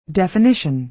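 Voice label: "definition", American English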